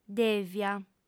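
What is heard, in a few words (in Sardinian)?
"dèvia", female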